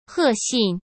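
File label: "贺信", Mandarin Chinese; chinese-flashcards; hsk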